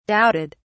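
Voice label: "doubted", British English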